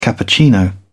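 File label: "cappuccino", English, British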